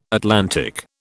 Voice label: "Atlantic", British

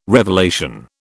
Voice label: "revelation", British

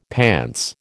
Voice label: "pants", American

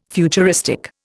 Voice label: "futuristic", Indian

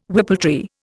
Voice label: "whippletree", Indian

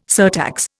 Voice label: "surtax", Indian

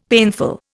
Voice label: "painful", Indian; Dictionary

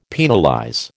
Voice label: "penalize", American

